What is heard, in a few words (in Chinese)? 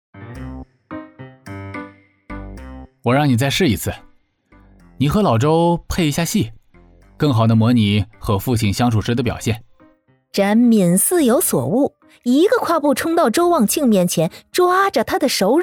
幽默轻松